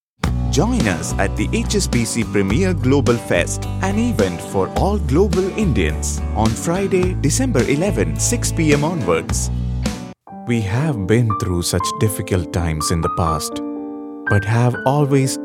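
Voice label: English Commercial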